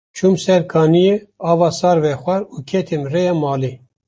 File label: kurdish; mozilla voice; sar